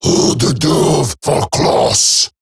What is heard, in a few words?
Cover Me Eximus Grineer Male; Battle